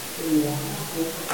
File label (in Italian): voce femminile; AbbaziaVangadizza